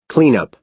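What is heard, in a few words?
"clean-up", American English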